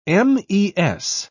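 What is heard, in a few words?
"mes", British English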